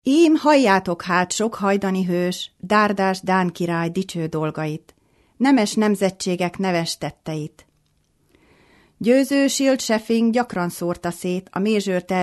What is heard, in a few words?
hungarian